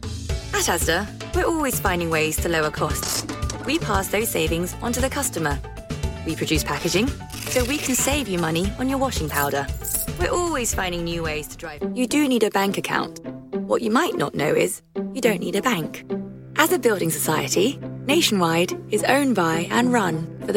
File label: Commercial